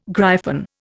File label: "gryphon", Indian